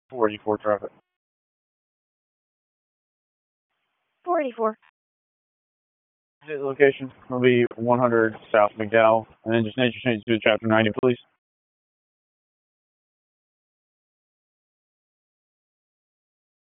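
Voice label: Raleigh Police Southeast District Dispatch, p25; wake p25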